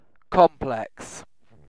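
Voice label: "complex", British English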